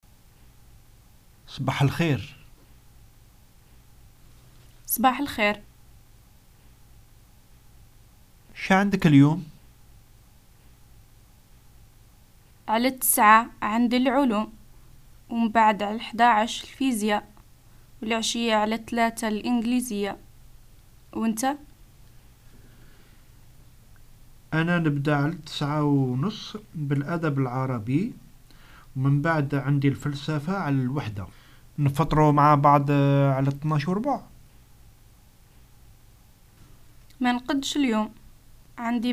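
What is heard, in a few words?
Algerian Arabic dialogue daily schedule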